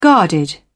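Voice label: "guarded", British English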